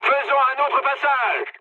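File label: bomb failure, mood high, French; War Thunder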